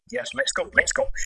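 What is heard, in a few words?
VoiceActing es, Male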